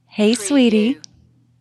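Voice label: Woman Says Hey Sweetie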